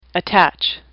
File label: "attach", English; AWL